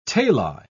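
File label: "tali", British English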